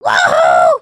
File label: volunteer, emotes